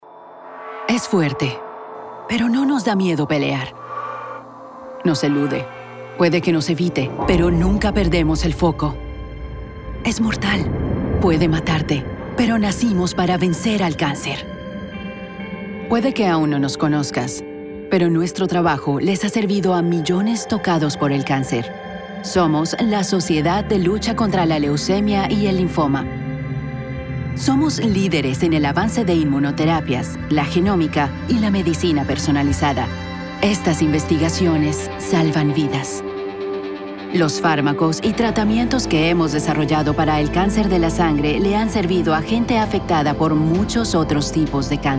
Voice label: PSA Voice Over; Tougher Than Cancer

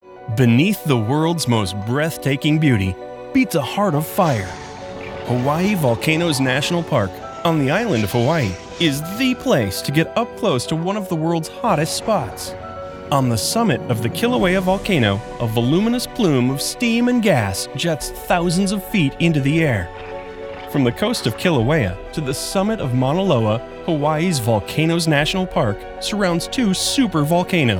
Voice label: narration

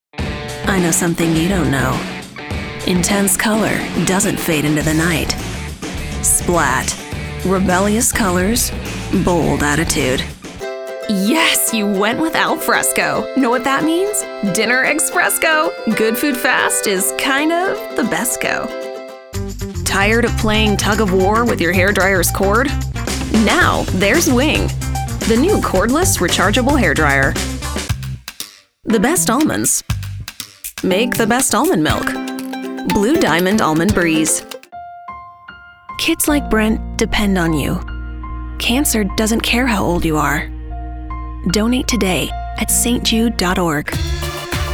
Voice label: Commerical

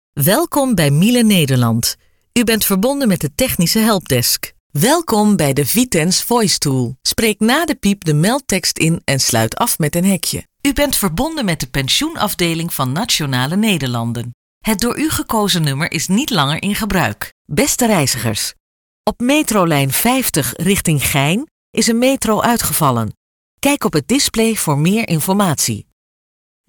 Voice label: IVR

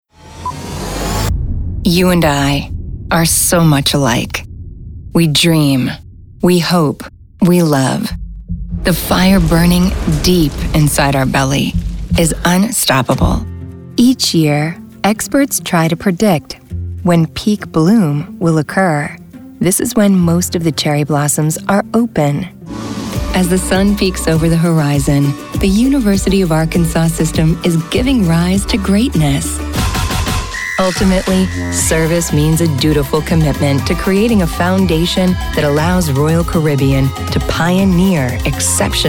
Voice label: Narration

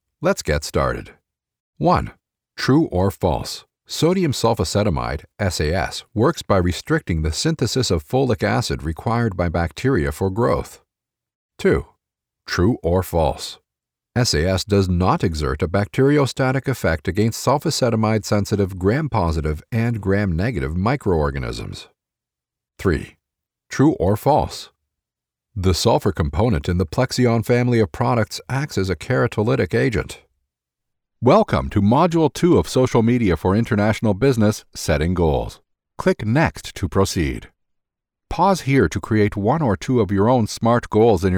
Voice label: educational

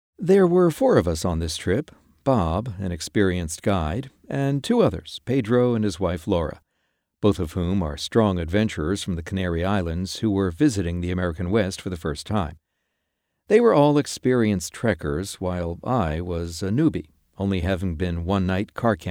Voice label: Storyteller

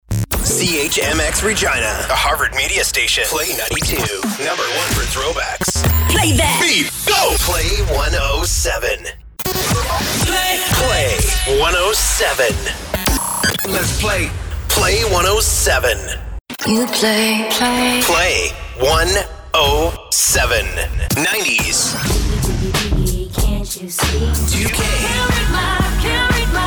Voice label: imaging voice pop

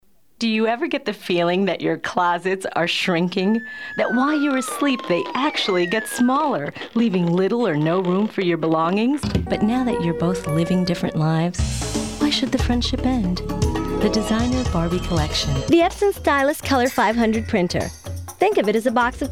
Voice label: Voiceover Short

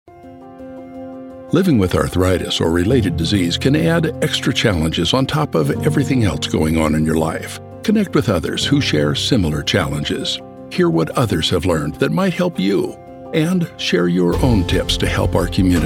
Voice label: Medical Warm

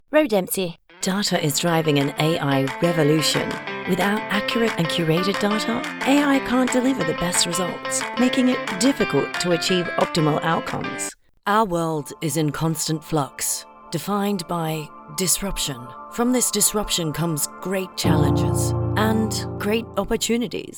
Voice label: British Voice

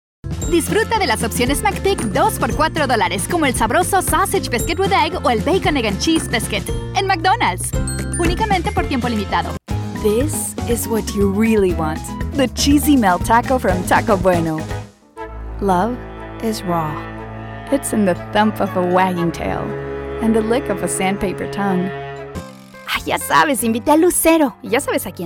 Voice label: BILINGUAL VOICE

